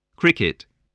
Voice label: "cricket", English